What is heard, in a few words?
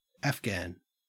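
"afghan", Australian English; pronunciations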